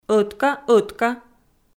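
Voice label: "ӧтка-ӧтка" Komi, female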